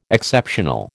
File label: "Exceptional", American